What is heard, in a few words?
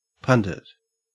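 "pundit", Australian English; pronunciations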